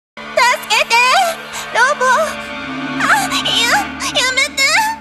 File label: sexy voice